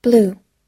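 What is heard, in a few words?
"blue", English pronunciation; long u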